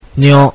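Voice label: "Nyo", Japanese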